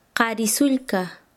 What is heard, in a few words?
Chanka, quechua; hermano menor